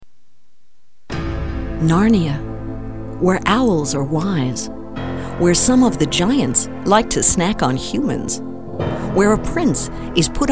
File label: advert